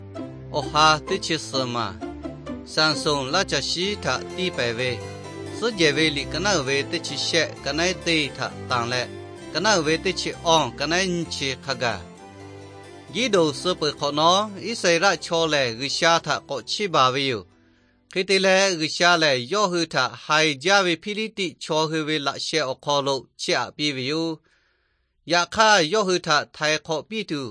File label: victory through god lahu na, nt drama; lahu thailand bible society